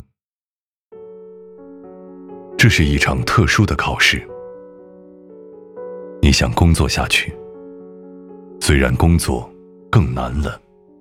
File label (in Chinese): 男国 旁白